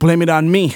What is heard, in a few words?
Phrase Male Rap; Vengeance Samples